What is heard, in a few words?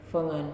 Eat, Nalik